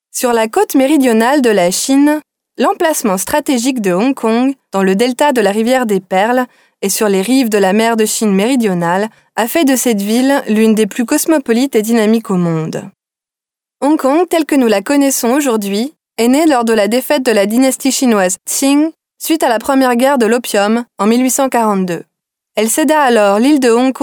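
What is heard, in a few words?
French female corporate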